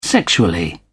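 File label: "sexually", British English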